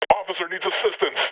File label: metropolice pain heavy; vo; Half Life Alyx